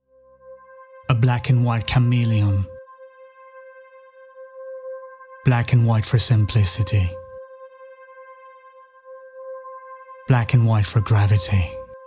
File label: Fictional character Medium voice Grave tone